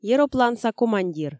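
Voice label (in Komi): "еропланса командир", Komi, female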